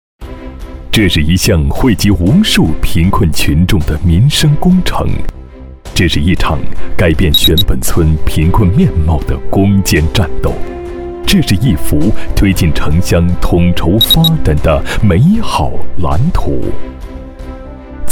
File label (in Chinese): 男 精准脱贫